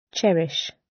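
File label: "cherish" British; Design and innovation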